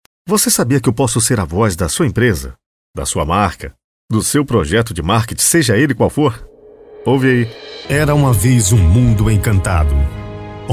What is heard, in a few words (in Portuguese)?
Masculino